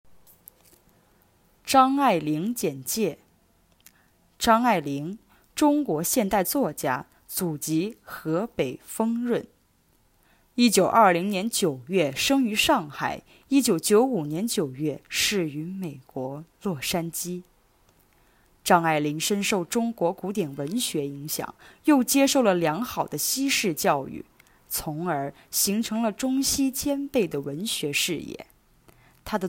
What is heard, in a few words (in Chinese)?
普通话; 张爱玲简介普通话版